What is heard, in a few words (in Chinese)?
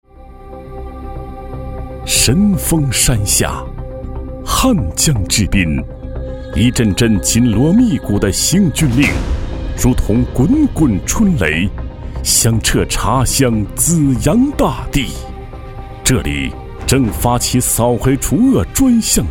男, 专题; 紫阳扫黑除恶